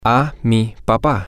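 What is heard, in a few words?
to my father, Spanish, male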